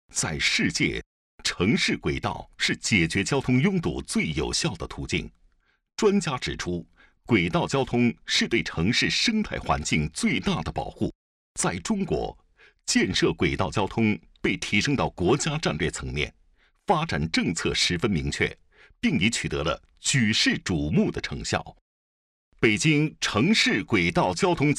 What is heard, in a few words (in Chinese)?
宣传片 北京轨道交通